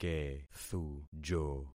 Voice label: "kethujo", concat syllables; Affixing experiment